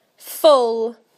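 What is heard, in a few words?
"full", British English; PictureDictionary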